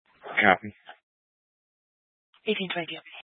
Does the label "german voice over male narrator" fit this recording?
No